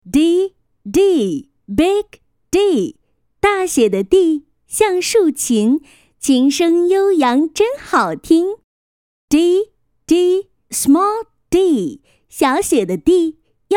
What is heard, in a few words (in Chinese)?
女 成 英文